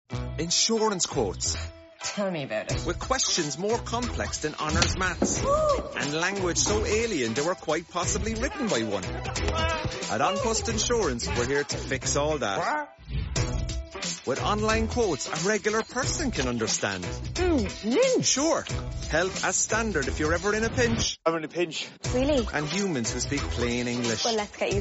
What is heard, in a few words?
Voiceover